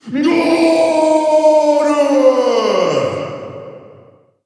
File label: scored voice